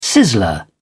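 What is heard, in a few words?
"sizzler", British English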